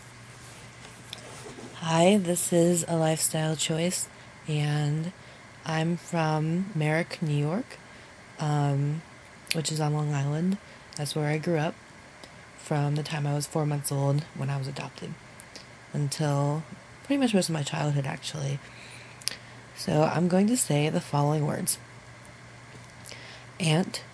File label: accent